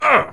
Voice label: hurt, pain; player; Dystopia